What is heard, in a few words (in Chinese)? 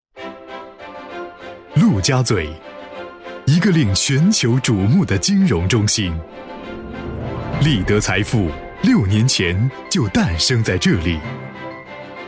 男 金融科技 大气 时尚; 利得财富